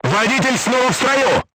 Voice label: voice message driver healed, crew tanks russian